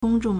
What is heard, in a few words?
"gong1 zhong4", Chinese